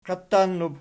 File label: "qiatannub", Archi